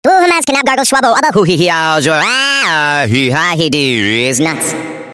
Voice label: gibberish

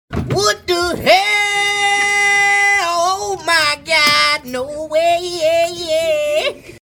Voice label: what the hell sound but high pitched

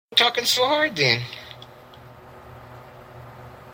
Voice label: talking hard

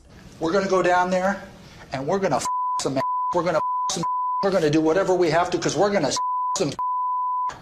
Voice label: swearing rant

